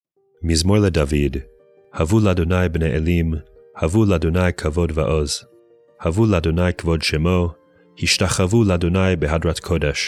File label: poetry and prayer psalm hebrew